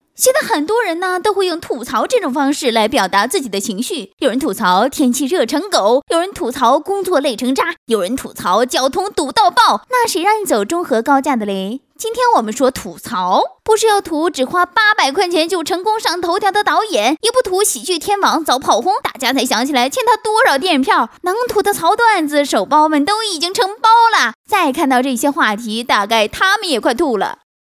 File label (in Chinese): 角色模仿 神经类吐槽, 国语女声配音